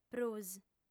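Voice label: "prus", Sardinian, female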